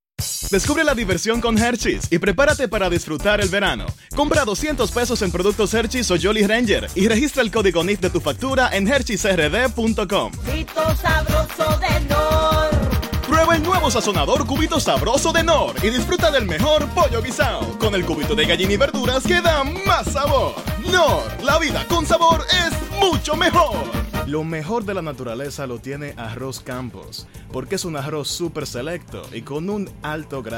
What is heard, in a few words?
Comercial, Bilingual Voice